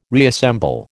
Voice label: "reassemble", American

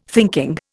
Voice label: "thinking", Indian

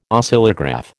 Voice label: "Oscillograph", American

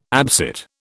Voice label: "absit", British

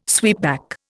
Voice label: "sweepback", Indian

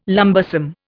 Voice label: "lumbersome", Indian

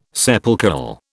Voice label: "sepulchral", British

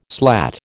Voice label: "slat", American

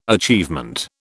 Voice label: "achievement", British

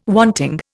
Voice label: "wanting", Indian

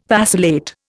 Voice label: "vacillate", Indian

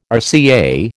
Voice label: "RCA", American

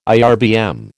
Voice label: "IRBM", American

